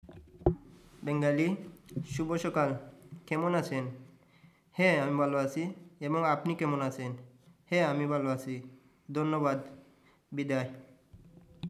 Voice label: Bengali